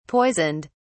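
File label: "poisoned", British English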